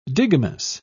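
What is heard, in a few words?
"digamous", en, British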